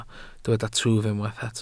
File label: "twf", Welsh